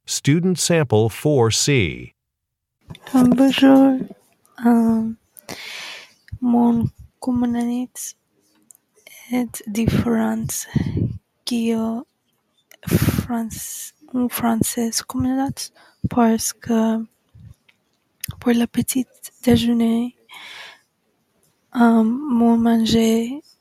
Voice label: french; ap french language and culture; Student Sample 4C